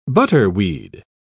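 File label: "butterweed", English, British English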